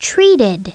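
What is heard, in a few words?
"treated", English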